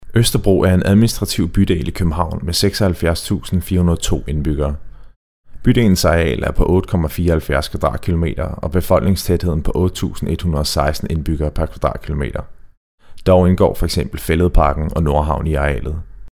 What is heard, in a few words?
Danish; Demo reel